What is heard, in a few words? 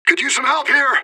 radio bot under fire; fbihrt